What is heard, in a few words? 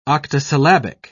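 "octosyllabic", English, British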